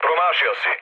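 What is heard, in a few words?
Serbian bomb failure mood med, speech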